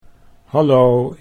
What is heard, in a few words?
"hallo", Dutch